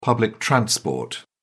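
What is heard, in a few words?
"public transport", British English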